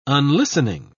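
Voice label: "unlistening", English, British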